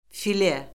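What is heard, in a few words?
"file", Azerbaijani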